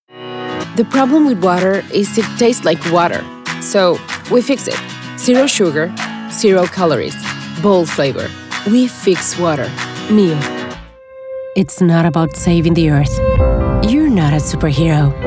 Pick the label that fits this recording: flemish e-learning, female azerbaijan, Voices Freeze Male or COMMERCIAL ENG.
COMMERCIAL ENG